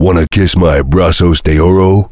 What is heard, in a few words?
heavy cheers; tf; duke